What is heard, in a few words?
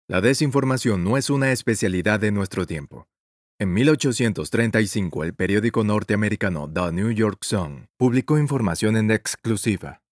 Spanish (LA) Male